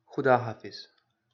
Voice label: Goodbye Urdu